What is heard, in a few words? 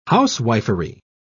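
"housewifery", British English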